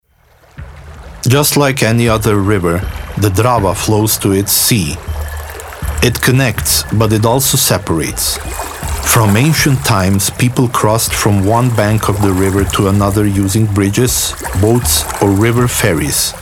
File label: eng; Čovjek prirodu treba